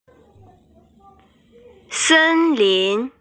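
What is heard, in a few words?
"sen1lin2", Mandarin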